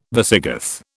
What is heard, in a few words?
"Visigoth", British